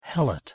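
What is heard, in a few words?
"h2elut", Proto-Indo-European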